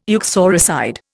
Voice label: "uxoricide", Indian